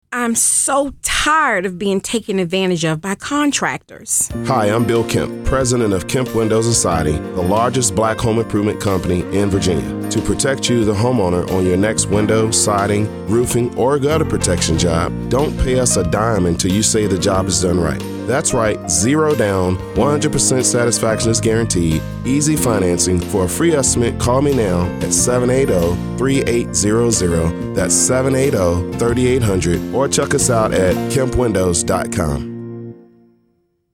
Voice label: Radio Ad